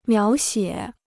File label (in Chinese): "描写", Chinese